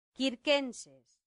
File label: "circenses", Latin; santillana